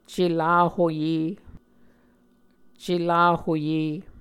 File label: "celahoye", muscogee